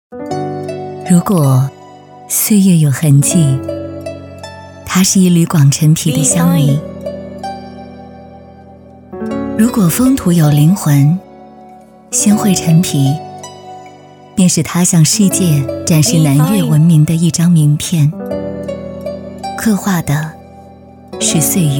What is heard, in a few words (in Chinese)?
女 走心宣传 新会陈皮